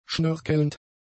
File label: "schnörkelnd", German; adjectives